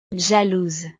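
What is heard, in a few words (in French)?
"jalouse", French; estados animo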